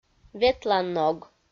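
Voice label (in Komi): "ветланног", Komi, female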